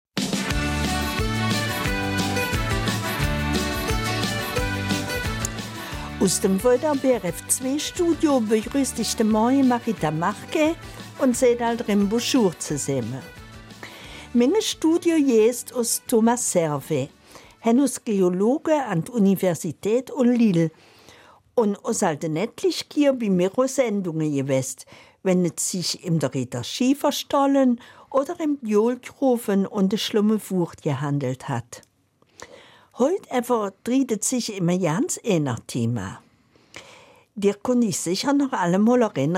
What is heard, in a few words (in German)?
Eifeler Mundart; Das Hügelgrab im Ortsteil Tömmel in Amel